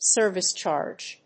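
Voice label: "service charge", English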